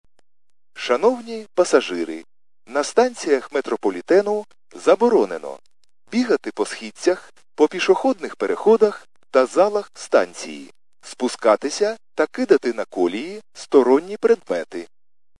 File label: khs st male, subway station